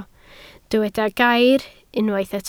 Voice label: "gair", Welsh

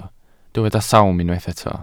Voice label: "sawm", welsh

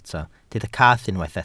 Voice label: "cath", Welsh

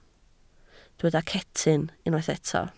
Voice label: "cetyn", Welsh

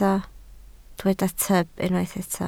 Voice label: "tyb", Welsh

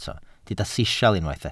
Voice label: "sisial", welsh

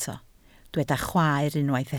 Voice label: "chwaer", Welsh

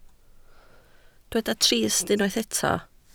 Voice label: "trist", Welsh

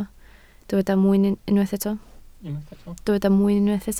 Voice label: "mwyn", Welsh

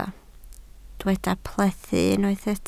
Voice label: "plethu", Welsh

